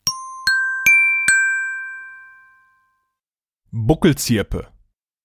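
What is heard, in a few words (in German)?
"Buckelzirpe", German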